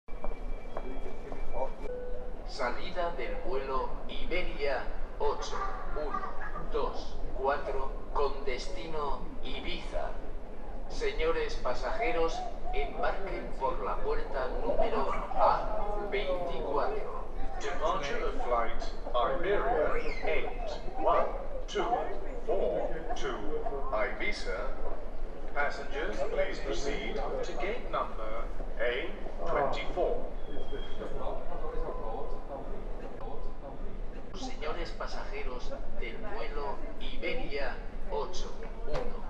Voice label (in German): Flughafen Ansagen Mallorca